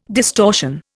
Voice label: "distortion", Indian